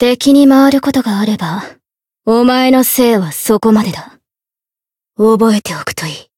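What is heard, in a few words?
Voice story, Japanese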